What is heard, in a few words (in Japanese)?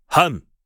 "半" onyomi, Japanese